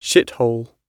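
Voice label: "shithole" British English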